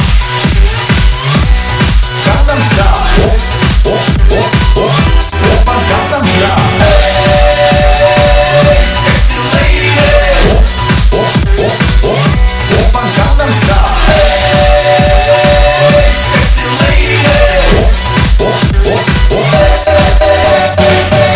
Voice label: Empty room